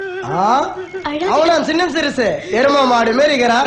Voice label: Tamil Comedy